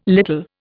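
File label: "little", Indian English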